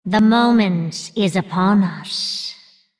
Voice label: female undead trigger spell tracking